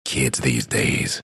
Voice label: announcer text cursing; dlc bastion

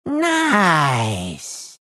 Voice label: happy; dota; meepo

